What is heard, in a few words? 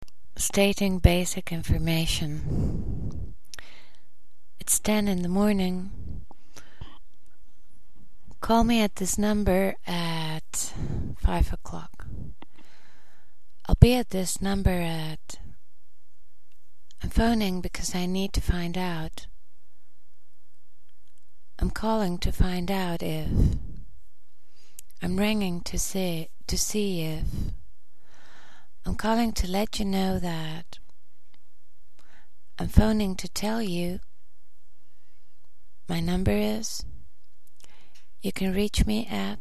phone